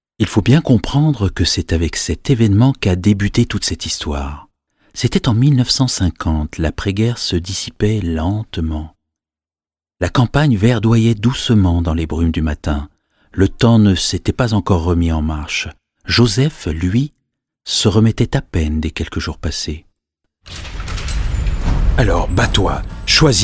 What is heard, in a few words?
French voice over dubbing